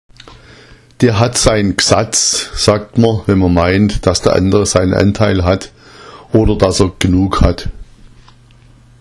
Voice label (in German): gsatz, schwaebisch; Der hat sai Gsatz